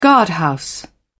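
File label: "guardhouse", British English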